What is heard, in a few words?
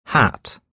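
"hat", English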